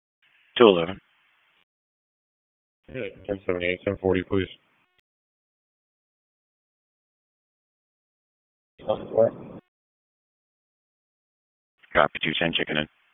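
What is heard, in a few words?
Raleigh Police Northeast District Dispatch, p25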